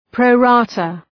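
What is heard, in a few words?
"pro rata", English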